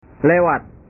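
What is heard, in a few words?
"lewat", Indonesian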